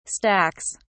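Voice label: "stacks", British English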